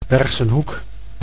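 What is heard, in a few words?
"Bergschenhoek", Dutch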